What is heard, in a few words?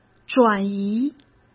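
"转移", Mandarin Chinese; chinese-flashcards; hsk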